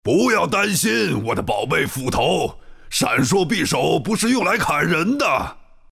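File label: blink, chinese; dota2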